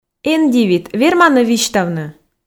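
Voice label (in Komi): эн дивит верман-ӧ висьтавны, Komi, female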